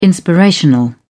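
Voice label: "inspirational", British English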